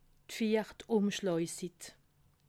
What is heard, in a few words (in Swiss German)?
"umschlagsitt", alsace